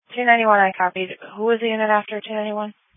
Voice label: Police Dispatch